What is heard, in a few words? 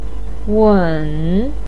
"weng3", Mandarin pinyin; 塕